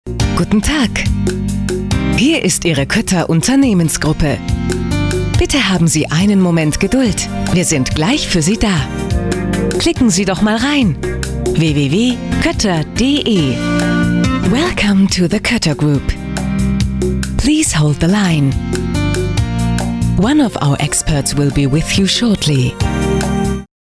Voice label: Bandansage deu engl; kotter

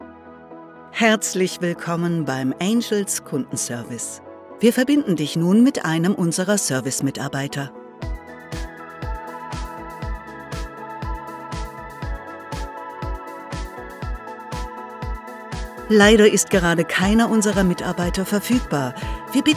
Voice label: Telefonansage; angels

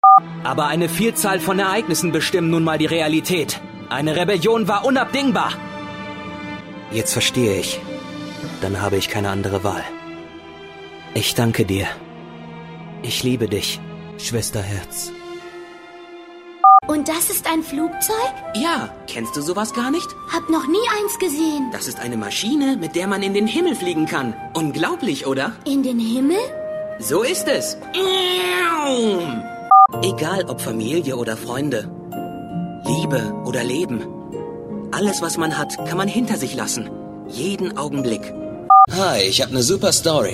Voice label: synchron auszuege

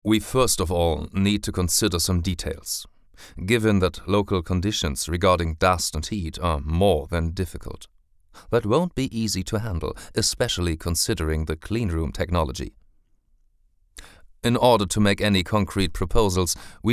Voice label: englisch business

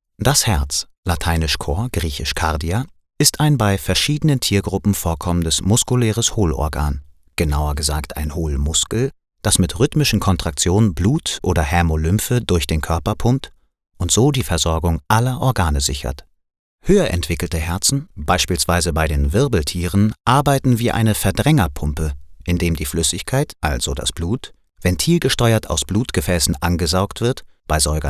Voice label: demo Sachtext

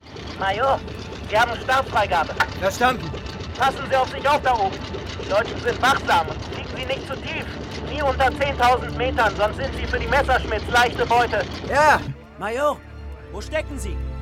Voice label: hoerspiel fluglotse

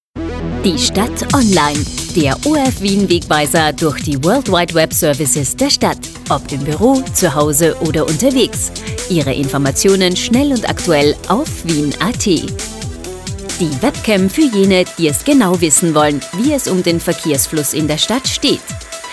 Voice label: trailer; orf wien; onlinewegweiser

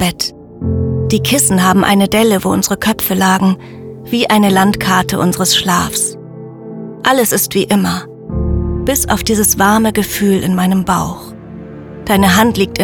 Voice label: sprecherdemos schuhschachtel

